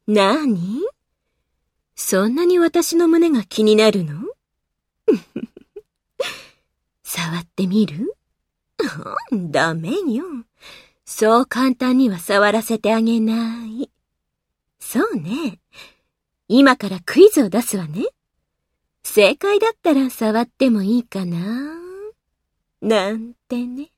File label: adult iroke